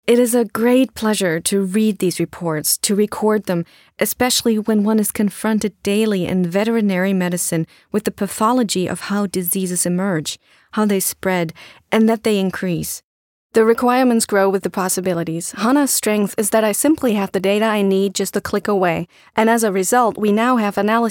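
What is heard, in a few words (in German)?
US English, Kommentar Variabel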